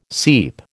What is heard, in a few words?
"seep", American